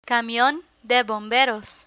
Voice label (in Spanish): "camion de bomberos", Spanish; emergency fire; learn spanish